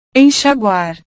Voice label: "enxaguar", portugues

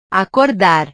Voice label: "acordar", Portuguese

